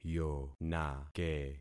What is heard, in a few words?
"yonake", concat syllables; Affixing experiment